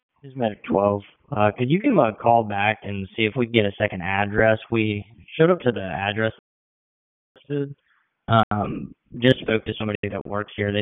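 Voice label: EMS DISP, radio; wake p25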